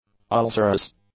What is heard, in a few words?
"ulcerous", English; adjectives